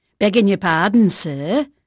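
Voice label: English female, voice; Pirates Of The Caribbean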